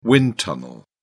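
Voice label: "wind tunnel", British English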